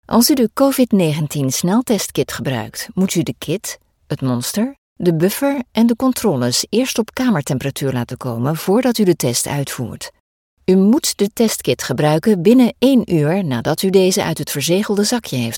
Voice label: e-learning Dutch